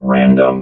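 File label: "random", robot voice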